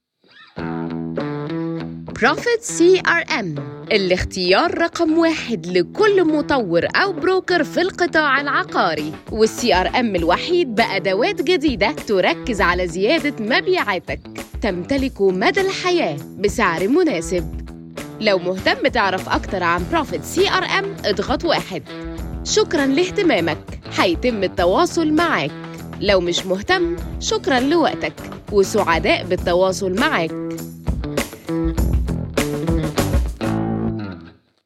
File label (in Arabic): Arabic, IVR; narrators